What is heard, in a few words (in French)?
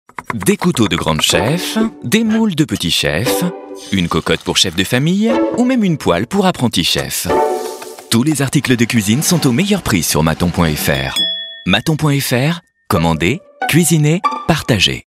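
positif tonique, comédien voix off homme; Mathon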